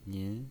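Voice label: "nin" second tone, pinyin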